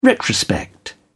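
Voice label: "retrospect", British English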